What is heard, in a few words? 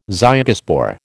"zygospore", American